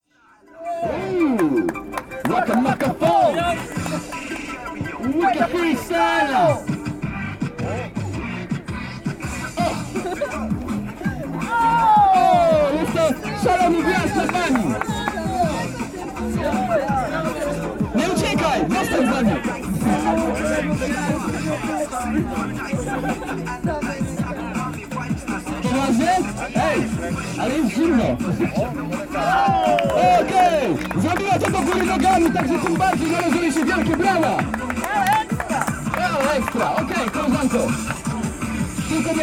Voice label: Rap; Varsovia